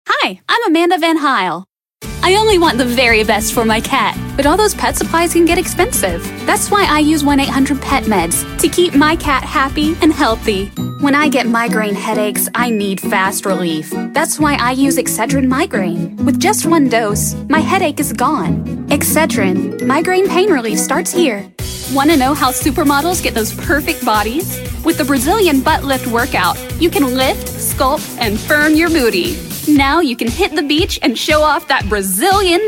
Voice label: Commercial